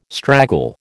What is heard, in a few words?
"straggle", American